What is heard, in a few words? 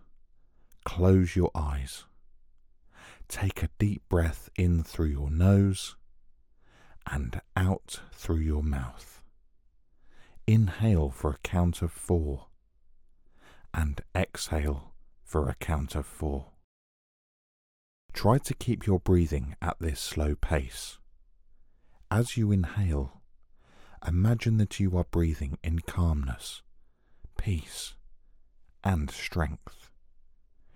Guided Meditation